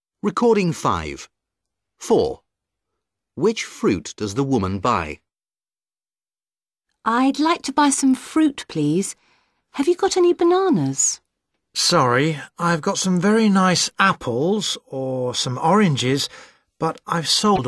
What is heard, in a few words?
dialog